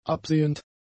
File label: "absehend", German; adjectives